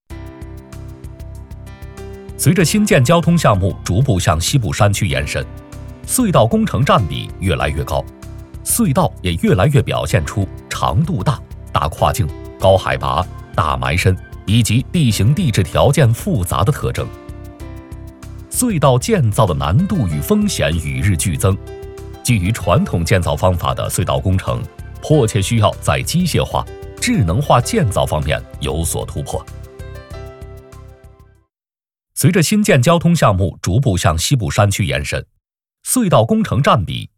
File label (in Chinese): 男 专题汇报; 隧道demo